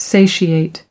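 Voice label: "satiate", US English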